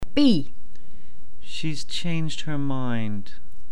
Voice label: emotion